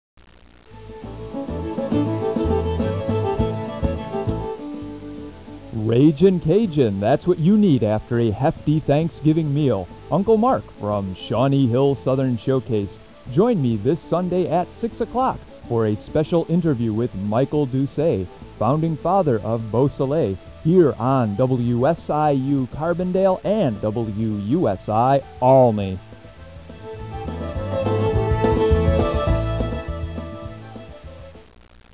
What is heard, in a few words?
Promo